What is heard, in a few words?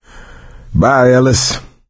survivor mourn, voice; Left 4 Dead 2; coach